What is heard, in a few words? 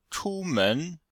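"chu1men2", Chinese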